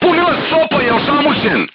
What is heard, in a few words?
voice message charger contused, crew tanks serbian